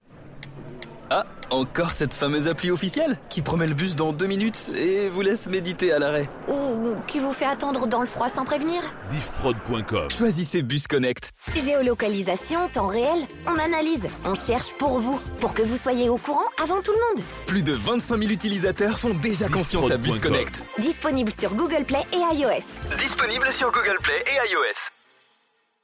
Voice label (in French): Spot Appli; Bus Connect